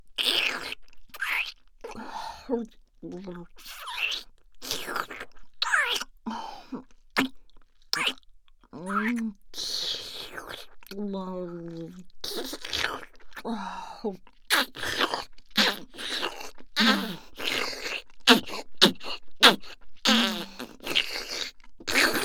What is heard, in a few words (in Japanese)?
ループ音声